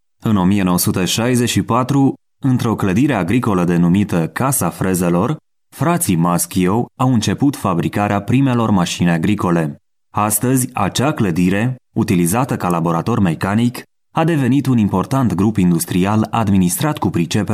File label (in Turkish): Romence Erkek; romence seslendirme; erkek sesler